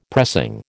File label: "pressing", American